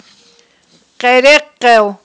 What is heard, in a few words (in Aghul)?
"кьерекъел", aghul